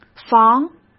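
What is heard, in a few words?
"防", Mandarin Chinese; chinese-flashcards; hsk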